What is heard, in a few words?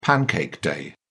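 "pancake day", British English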